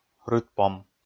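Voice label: "рытпом", Komi, male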